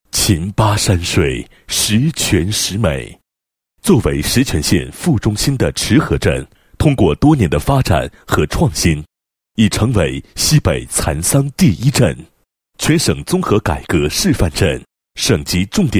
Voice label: 男 企业; 陕西嘉晟实业有限公司

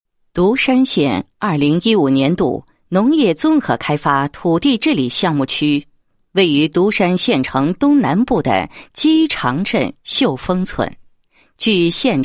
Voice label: 女, 地区农业建设; 独山县紧抓农业综合开发

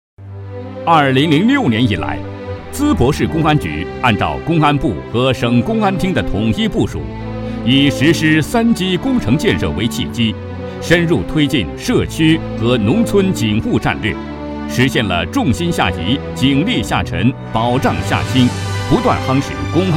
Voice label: 男 专题, 国语男声配音; 淄博市公安局

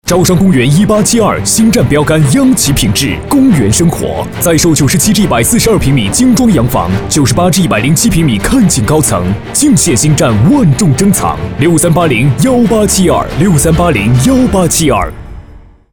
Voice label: 男, 广告配音, 大气质感

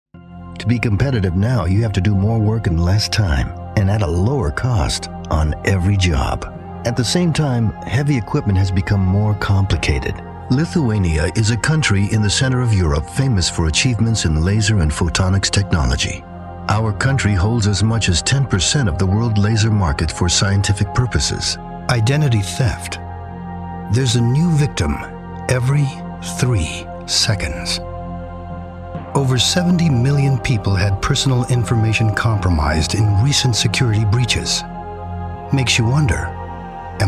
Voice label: 外籍男, 解说类

